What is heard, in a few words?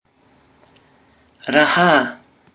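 "raha", Māori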